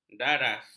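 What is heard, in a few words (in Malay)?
"daras", Malay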